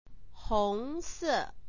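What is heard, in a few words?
"hong se", Mandarin Chinese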